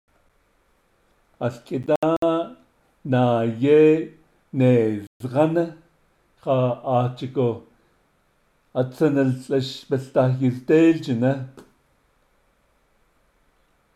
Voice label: It is said that long ago Monster Slayer was carri, Navajo